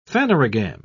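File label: "phanerogam", English, British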